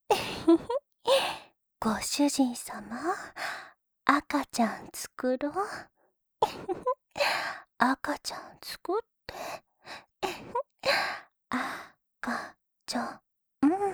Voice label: 赤ちゃん作ろ はにかみ; シャルロッテ

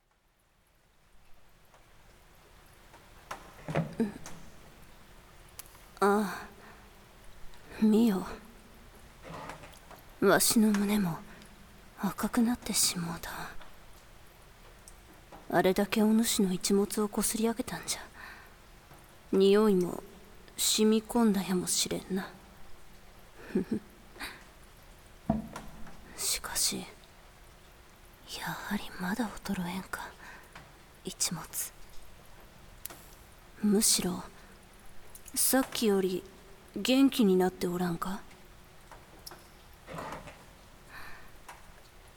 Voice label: 雨降りバージョン, ASMR; 田舎のクールな美人巫女と自己犠牲愛2

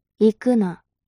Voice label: mob 女子 台詞

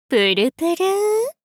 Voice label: ぷるぷる～ お姉さん 中音域

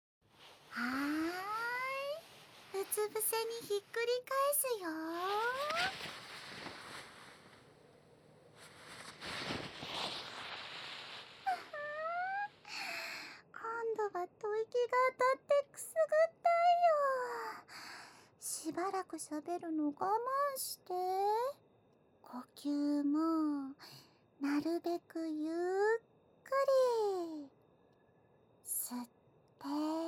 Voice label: 全身マッサージ, ASMR; て…手分けして…

